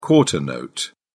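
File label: "quarter note", British English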